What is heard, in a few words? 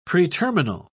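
"preterminal", British English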